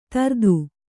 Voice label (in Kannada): "ದರ್ದು", kannada